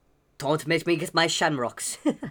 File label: Male Beggings